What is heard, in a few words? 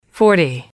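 "forty", female, english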